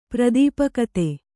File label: "ಪ್ರದೀಪಕತೆ", Kannada